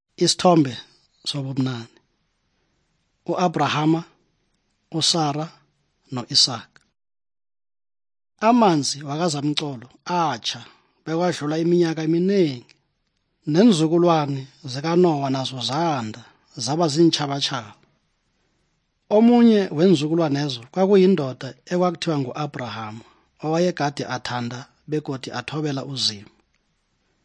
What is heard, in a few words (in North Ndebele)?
good news ndebele southern, drama